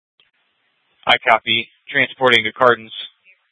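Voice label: Gilbert, Fire Department, scanner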